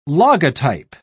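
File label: "logotype", British English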